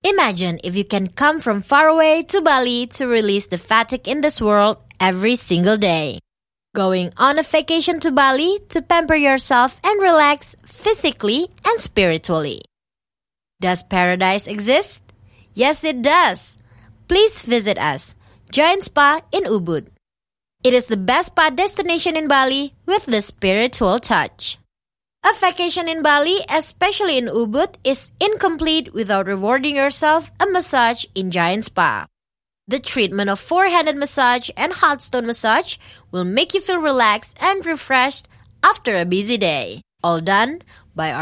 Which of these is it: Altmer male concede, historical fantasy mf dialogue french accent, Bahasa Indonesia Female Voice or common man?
Bahasa Indonesia Female Voice